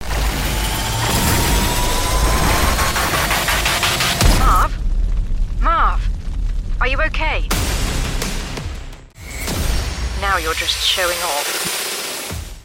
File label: english british video games